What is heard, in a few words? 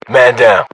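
uk reaction casualty generic, battle chatter, English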